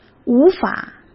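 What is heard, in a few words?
"无法", Mandarin Chinese; chinese flashcards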